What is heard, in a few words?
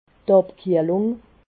"abkiehlung", alsace